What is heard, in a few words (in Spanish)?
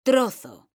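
"trozo", Spanish, female; mekanta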